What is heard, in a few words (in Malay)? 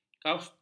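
"kaustik", Malay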